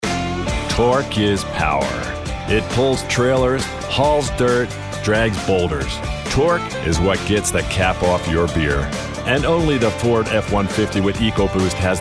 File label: Commercial